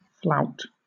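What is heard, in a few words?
"flout", British English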